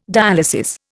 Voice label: "dialysis", Indian